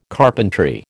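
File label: "carpentry", American English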